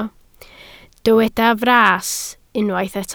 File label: "fras", Welsh